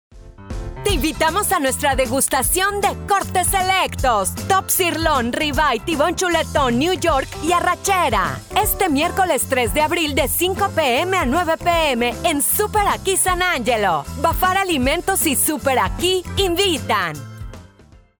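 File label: Spanish Latin America female voice over, upbeat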